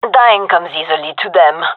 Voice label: round won, gendarmerie fem; vo

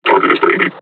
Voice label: taunt, combine charger; Half Life Alyx

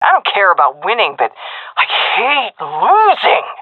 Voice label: round lost, seal fem; vo; Counter Strike Model Pack; CS:GO

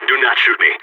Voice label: friendly fire, balkan

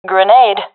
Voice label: throwing grenade, professional fem; vo; Counter Strike Model Pack; CS:GO

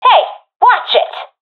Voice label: taking fire friendly, professional fem; vo; Counter Strike Model Pack; CS:GO

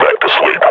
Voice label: announce kill zombie, combine; vo

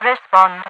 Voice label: respond, overwatch radio voice; Half Life 2

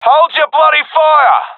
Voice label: sees friend killed, professional epic; vo; Counter Strike Model Pack; CS:GO

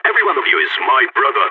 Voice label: pep talk, balkan; vo

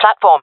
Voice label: "platform", fem; player vo jungle fem

